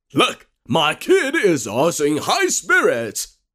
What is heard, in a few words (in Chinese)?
男, 英文配音角色, 手游; 中英双语 科技感; 手游英魂之刃 熊猫人